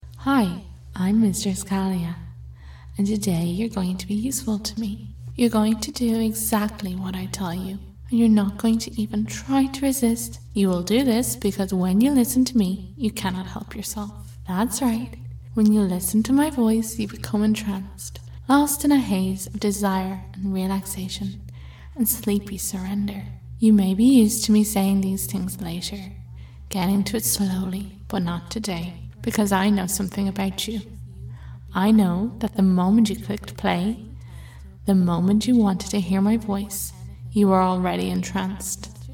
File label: Erotic Hypnosis; F4M; Brainwash; JOI; Objectification; Subliminals; Task; Drone Conversion; Repeat After Me